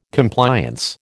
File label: "Compliance", American